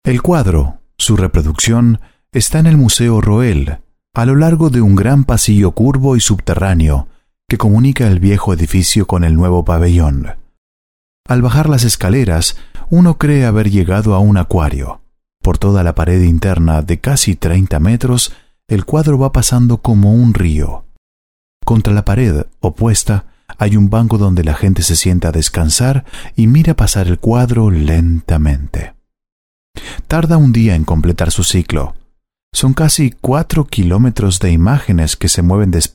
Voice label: Audiobook; French (Canadian)